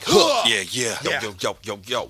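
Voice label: "huh yeah yeah yeah yo yoyo yo yo", vocal sample; ooh ahh; heh huh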